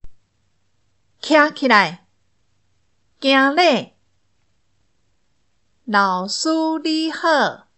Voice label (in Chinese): 課室生活用語 (台語)